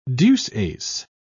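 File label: "deuce-ace", British English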